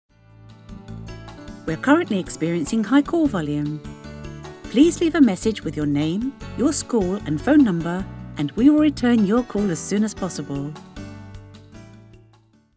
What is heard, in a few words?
Voicemail, IVR; LovelyVoice; Illuminate Publishing